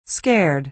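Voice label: "scared", American English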